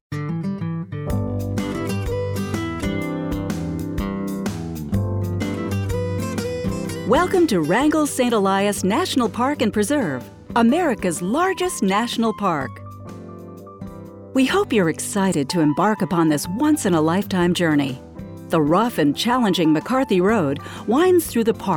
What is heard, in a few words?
Audio Tour; McCarthy Road